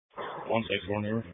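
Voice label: Police Patrol Dispatch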